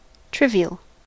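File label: "trivial", American English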